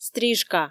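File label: "стрижка", Komi, female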